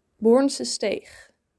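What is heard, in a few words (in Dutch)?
"Bornsesteeg", Dutch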